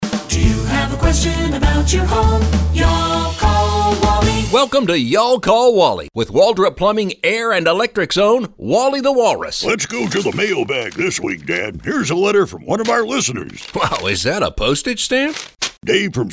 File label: radio ads; Surge Protector